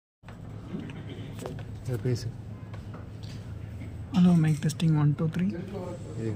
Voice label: voice note

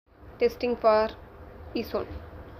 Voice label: voice note

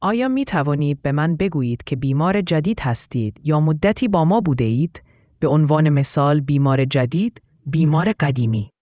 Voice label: persian

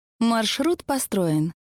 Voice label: gps info; midway-rp

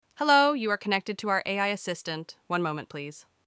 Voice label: call greeting; ivr; Sound-Conversions

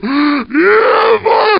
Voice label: zombie voice idle

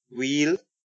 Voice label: "wheel", English; ee ea words; epaath; grade2

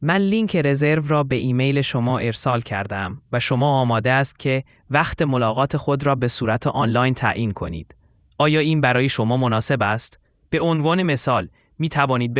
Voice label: persian

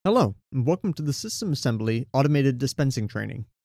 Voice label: Welcome to training, Voiceover

